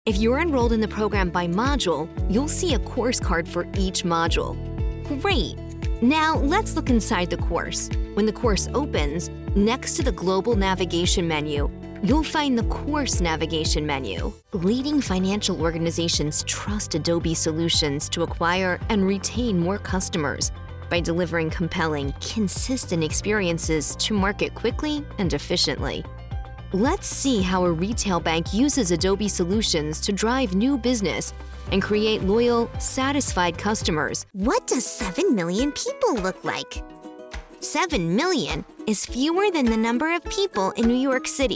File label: E Learning